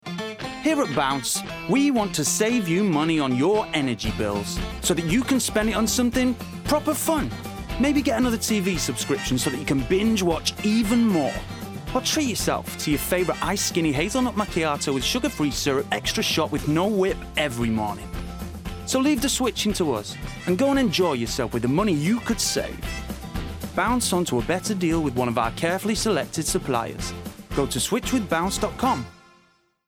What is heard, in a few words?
Bright conversational natural; Bounce Energy